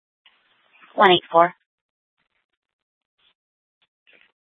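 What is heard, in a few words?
Police Department North Dispatch, scanner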